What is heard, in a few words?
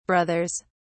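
"brothers", British English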